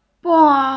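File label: "beng4" Chinese, female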